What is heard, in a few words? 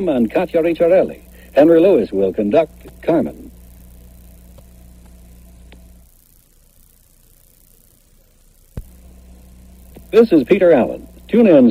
promo opera